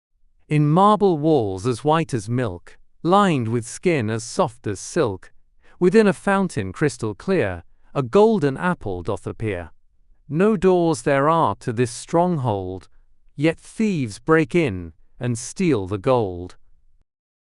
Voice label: male brit; In Marble Walls